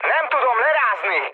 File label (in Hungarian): aircraft damaged mood med, hun; War Thunder